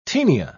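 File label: "tenia", British English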